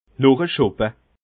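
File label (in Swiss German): "noche schoppe", Bas Rhin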